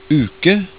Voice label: "UKE", norwegian